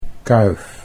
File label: "kuif", Dutch